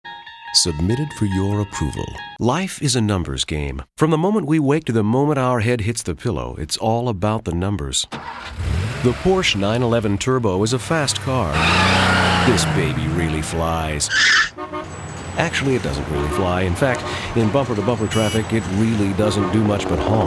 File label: Commercial